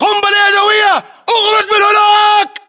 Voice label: inform incoming grenade, english battlechatter

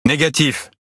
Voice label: Speech Quick Commands No Way, FR; World Of Warships

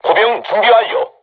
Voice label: voice message art charged, korean, crew tanks

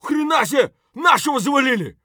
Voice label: friendly down; Escape from Tarkov; scav5

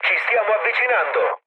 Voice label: near waypoint mood low, speech it; War Thunder

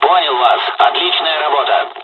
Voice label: dialogs russian; War Thunder

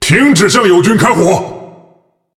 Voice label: Speech Friendly Fire Hit Alert, ZH CN

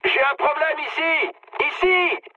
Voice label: aircraft damaged mood med, speech fr; War Thunder

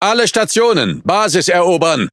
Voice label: Speech Quick Commands Enemy Base, DE; World Of Warships

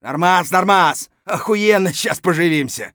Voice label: mutter; Escape from Tarkov

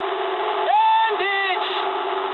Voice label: eng enemy detected mood med, speech eng; War Thunder

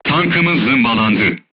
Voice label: voice message player tank hit, crew tanks turkish

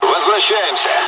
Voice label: dialogs russian; War Thunder

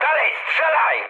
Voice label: attack position mood med, speech pol; War Thunder

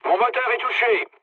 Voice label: damaged engine mood low, speech fr; War Thunder

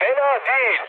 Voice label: trick, mood med, speech tur; War Thunder

